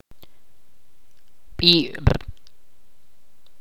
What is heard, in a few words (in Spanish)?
"camino", Ch'orti'